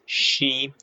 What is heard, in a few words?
short voyels arabic alphabet